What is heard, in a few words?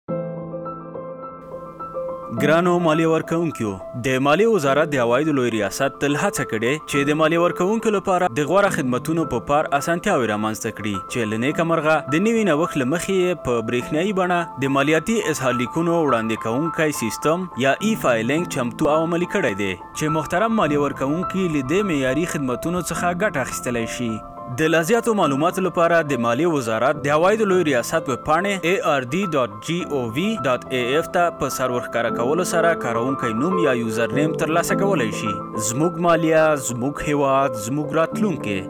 Pashto; ARD